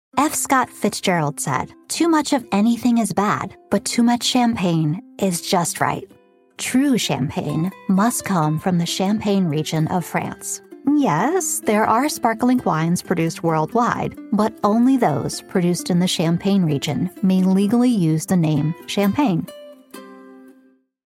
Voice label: narration champagne